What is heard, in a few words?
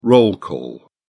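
"roll call", English, British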